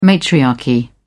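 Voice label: "matriarchy", British English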